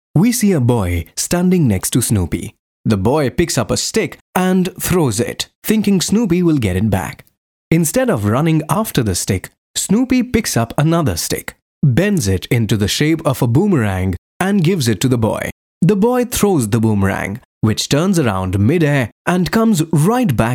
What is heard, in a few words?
english, male voice